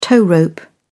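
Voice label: "tow rope", British English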